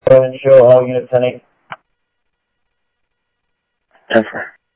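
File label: Sheriff West County, scanner audio trunk; MCSO - WEST Sheriff-WestCnty; ThompsonPeakScot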